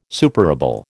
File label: "superable", American